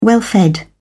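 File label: "well-fed", British English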